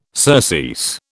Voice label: "surcease", British